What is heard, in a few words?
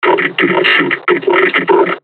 taunt, combine charger; Half Life Alyx